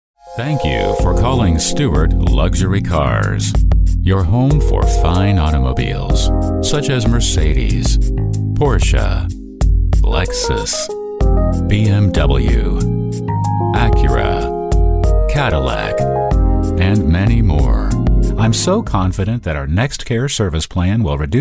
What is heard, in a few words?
IVR On Hold Telephone